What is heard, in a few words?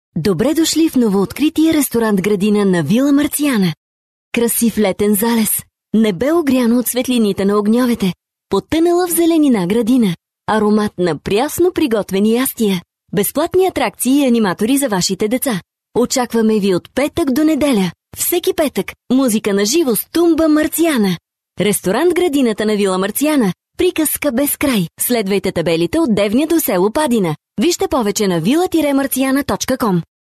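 Bulgarian, female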